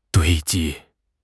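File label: "堆积", Mandarin Chinese; HSK; HSK6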